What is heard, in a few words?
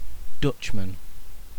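"dutchman", British English; pronunciation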